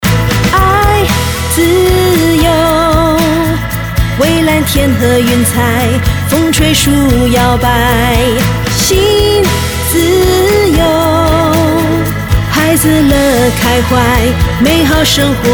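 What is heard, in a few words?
Mandarin; Sime Darby Bandar Ainsdale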